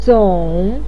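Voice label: "zong3", Mandarin pinyin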